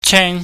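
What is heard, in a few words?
"cheng", Cantonese, high falling tone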